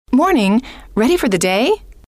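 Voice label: morning ready for the day, female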